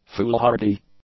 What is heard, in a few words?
"foolhardy", english; adjectives